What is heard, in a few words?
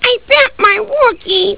wookie